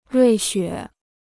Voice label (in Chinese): "瑞雪", Chinese